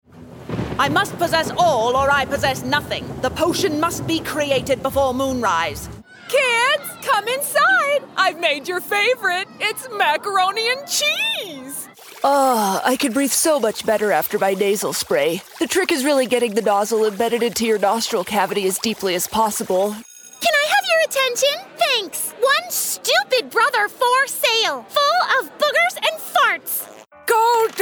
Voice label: Animation

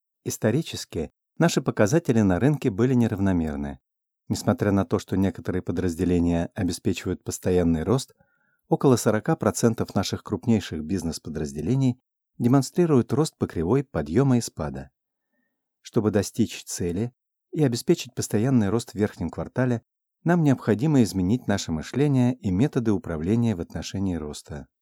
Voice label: Corporate presentation

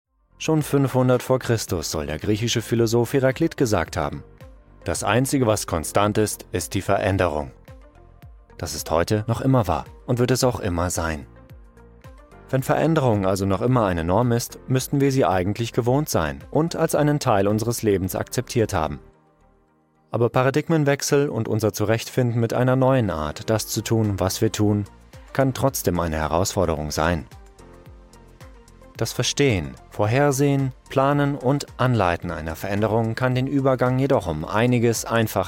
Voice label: Voice Over Explainer; Voice Over Demo

